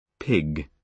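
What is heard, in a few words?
"pig", British English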